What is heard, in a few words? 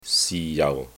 "si6 jau4", cantonese